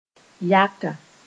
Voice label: "yaka", Moore